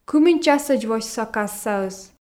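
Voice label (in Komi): кымын часӧдз восьса кассаыс, Komi, female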